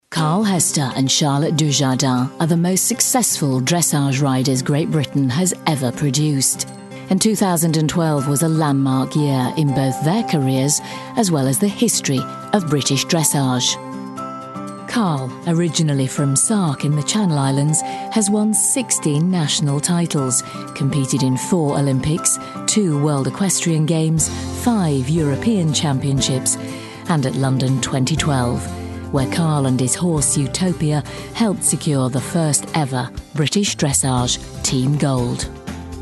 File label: documentary